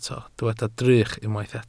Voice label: "drych", Welsh